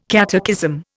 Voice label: "catechism", Indian